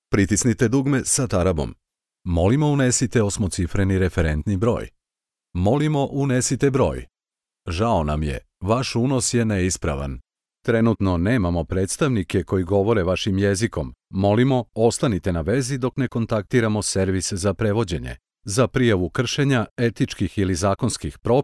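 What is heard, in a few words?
Serbian male voice over, upbeat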